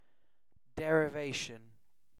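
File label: "derivation", British English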